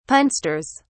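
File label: "punsters", British English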